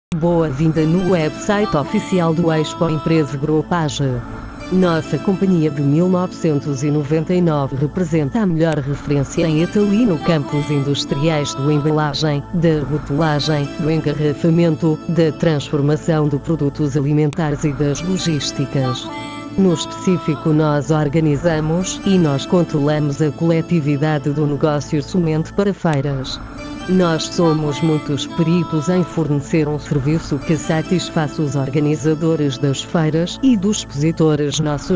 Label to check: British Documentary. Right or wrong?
Wrong